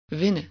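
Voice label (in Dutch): "winnen", Dutch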